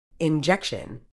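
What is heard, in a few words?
"injection", British English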